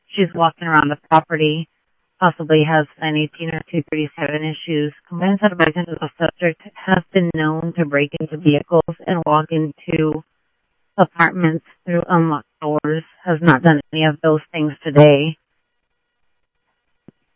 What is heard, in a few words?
Tempe Police, scanner